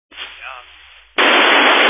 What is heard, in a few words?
Family Radio Service (FRS)